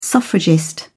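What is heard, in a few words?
"suffragist", British English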